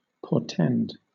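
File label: "portend", British English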